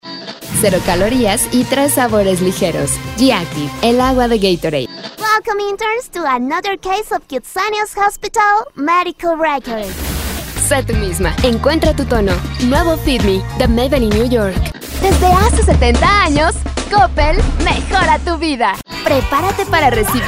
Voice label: spanish latin american commercial; Espagne

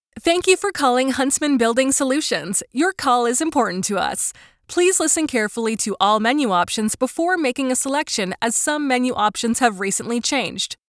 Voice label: english canadian ivr

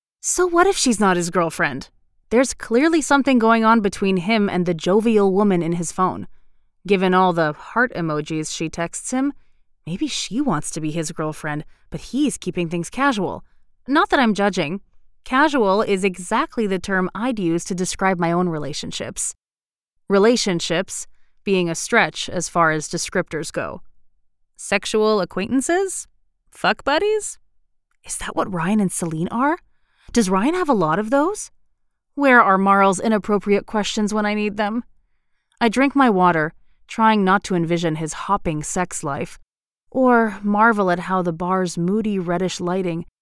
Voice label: english american audiobooks

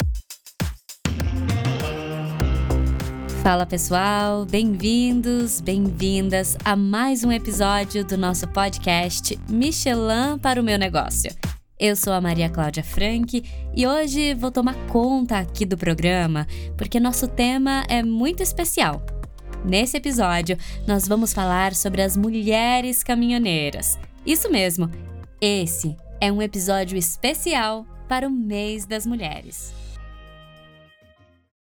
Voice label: Portuguese Brazilian podcasts

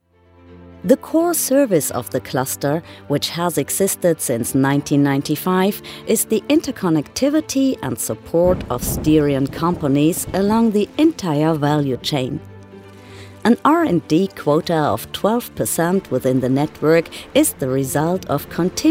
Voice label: english commercial

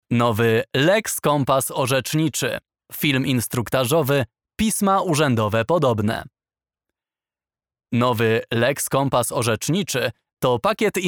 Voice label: polish explainer videos; Talento de locução polonês

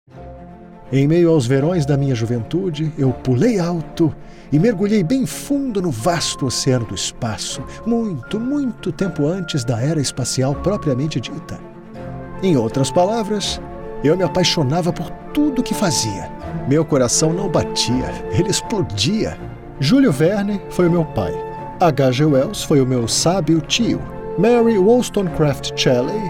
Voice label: Portuguese Brazilian audiobooks

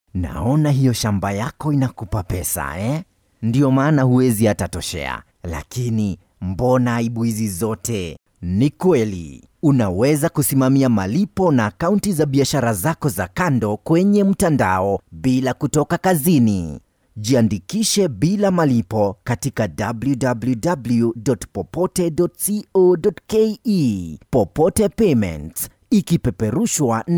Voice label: Swahili promos

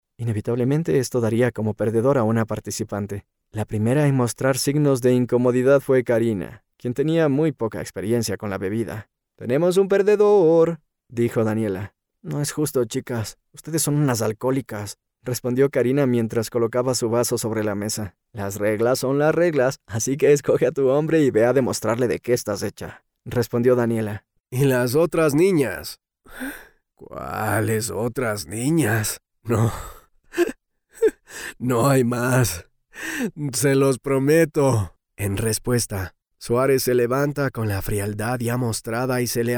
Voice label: spanish latin american neutral audiobooks

